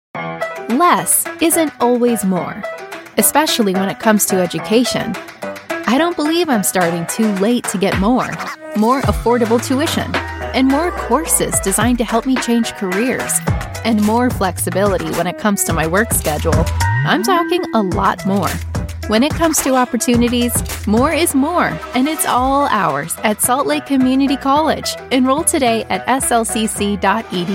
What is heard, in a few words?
american voice actor natural